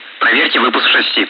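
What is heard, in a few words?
Speech, Russian, air traffic control; check landing gear; DCS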